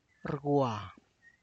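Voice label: tlacochahuaya, Zapotec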